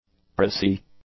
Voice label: "pricy", english; adjectives

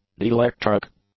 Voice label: "dielectric", english; adjectives

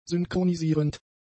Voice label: "synchronisierend", German; adjectives

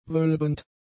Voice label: "wölbend", German; adjectives

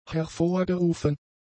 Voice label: "hervorgerufen", German; adjectives

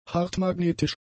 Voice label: "hartmagnetisch", German; adjectives

